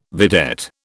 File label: "vedette", British